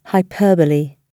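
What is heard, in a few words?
"hyperbole", British English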